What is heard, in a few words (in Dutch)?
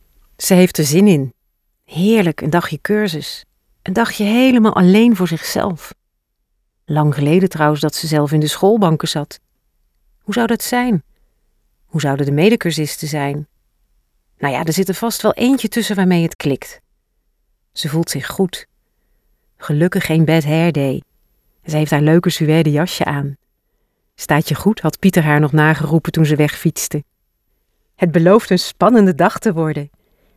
audioboeken